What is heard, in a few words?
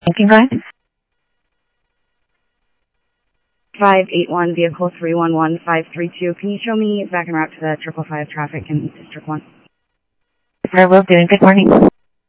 Sheriff, scanner audio